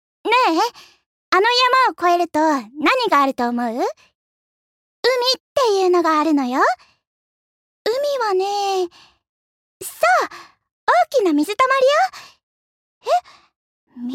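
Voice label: voice sample, overly curious girl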